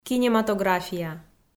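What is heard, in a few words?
"кинематография", Komi, female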